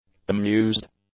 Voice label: "amused", English; adjectives

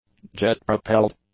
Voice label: "jet-propelled", english; adjectives

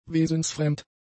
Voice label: "wesensfremd", German; adjectives

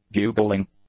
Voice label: "bugling", English; nouns

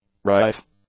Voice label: "rife", English; adjectives

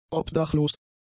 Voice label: "obdachlos", German; adjectives

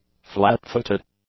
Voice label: "flat-footed", english; adjectives

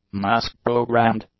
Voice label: "mask-programmed", English; adjectives

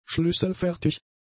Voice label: "schlüsselfertig", German; adjectives

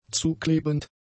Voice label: "zuklebend", German; adjectives

